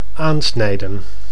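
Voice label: "aansnijden", Dutch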